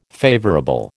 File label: "favourable", American